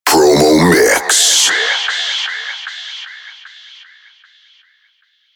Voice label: sweeper promo mix